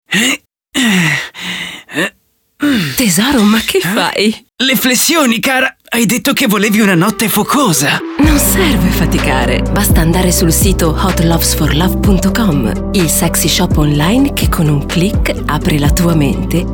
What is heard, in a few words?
radio SPOT